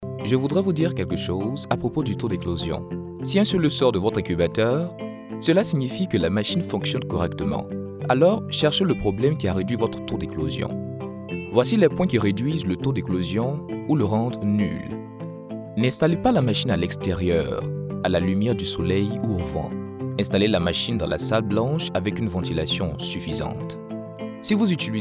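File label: African French, male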